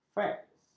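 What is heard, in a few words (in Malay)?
"faks", Malay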